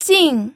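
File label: "jing4", Mandarin Chinese fourth tone; pinyin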